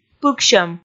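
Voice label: "пыксьӧм", Komi, female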